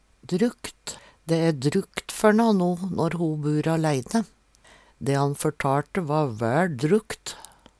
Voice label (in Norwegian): "drukt", Numedalsmål